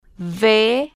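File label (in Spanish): "v", spanish, female, slow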